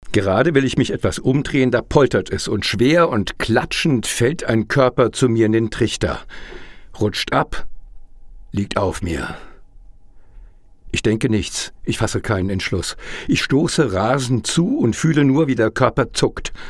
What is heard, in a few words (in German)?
Hoerbuch; Im Westen nichts Neues